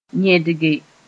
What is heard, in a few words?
"yẽdge", moore; supplement